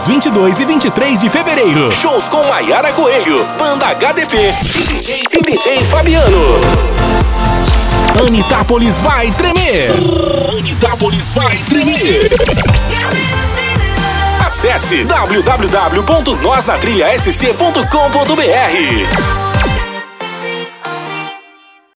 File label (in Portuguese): CHAMADA MOTO TRILHA